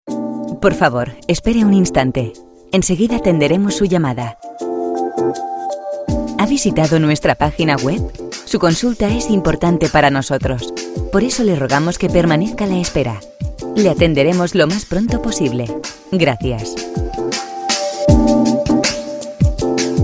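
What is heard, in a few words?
esp, female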